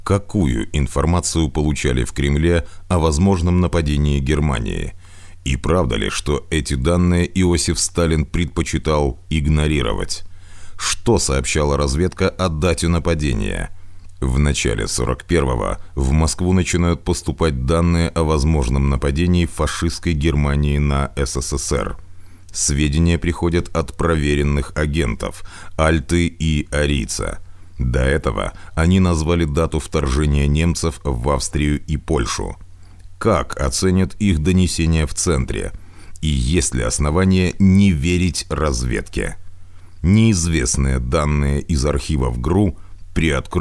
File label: serezno rus